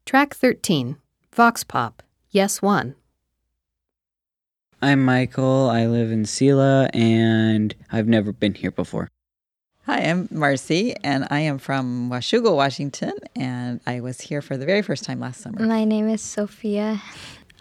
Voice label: Vox Pop